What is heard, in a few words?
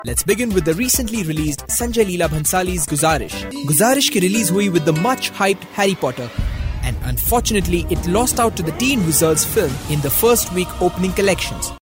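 Hindi English commercial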